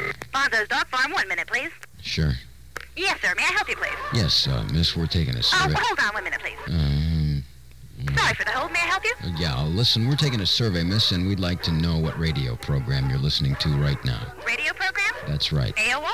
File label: Promo phone call; bonzos dog farm